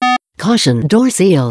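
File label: Beep Caution Door Seal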